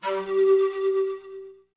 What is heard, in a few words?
Neutral sound